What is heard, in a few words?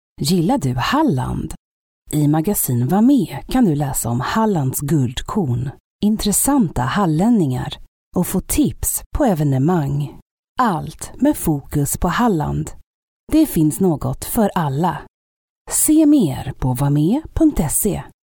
swedish female commercial